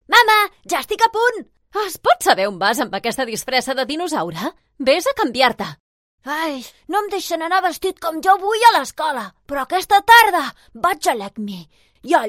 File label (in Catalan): catala promo voces nino y adulta